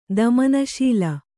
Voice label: "ದಮನಶೀಲ", Kannada

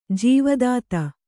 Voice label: "ಜೀವದಾತ", kannada

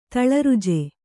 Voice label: "ತಳರುಜೆ", Kannada

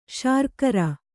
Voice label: "ಶರ್ಕರೆ", kannada

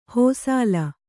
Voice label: "ಹೋಸಾಲ", Kannada

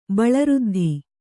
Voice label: "ಬಳಋದ್ಧಿ", Kannada

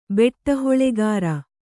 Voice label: "ಬೆಟ್ಟಹೊಲೆಗಾರ", Kannada

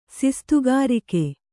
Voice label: "ಸಿಸ್ತುಗಾರಿಕೆ", Kannada

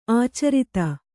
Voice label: "ಆಚಾರತೆ", Kannada

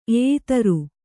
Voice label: "ಎಯಿತರು", Kannada

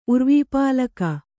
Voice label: "ಉರ್ವೀಪಾಲಕ", Kannada

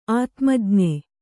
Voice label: "ಆತ್ಮಜ್ಞ", Kannada

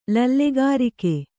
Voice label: "ಲಲ್ಲೆಗಾರಿಕೆ", Kannada

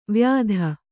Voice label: "ವಾಯಿದೆ", Kannada

